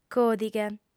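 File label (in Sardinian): "còdighe", female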